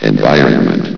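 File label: "environment", English, station announcer voice; S.P.L.U.R.T-Station-13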